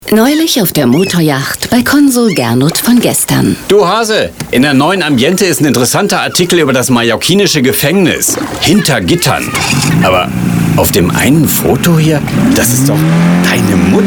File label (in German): Medien Radiowerbung